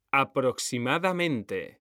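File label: "aproximadamente", Spanish, male; mekanta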